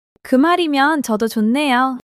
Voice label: joy; monologue

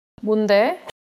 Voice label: neutral, monologue

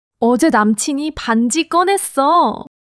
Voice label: excitement, monologue

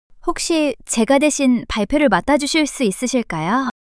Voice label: shy; monologue

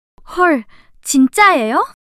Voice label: surprise, monologue

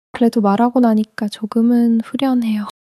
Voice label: neutral

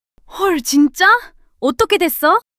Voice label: surprise, monologue

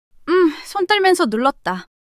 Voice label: fluster; monologue

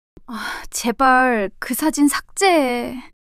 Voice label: shy; monologue

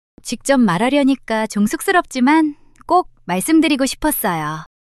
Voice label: shy

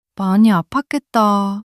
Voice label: sadness, monologue

